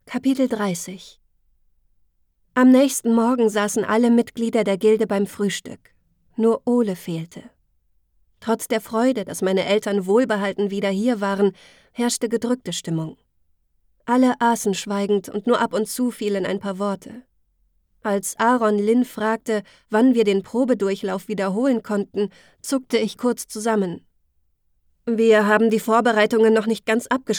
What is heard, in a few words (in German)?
Hoerbuch jugendbuch fantasy Ich Erzaehlerin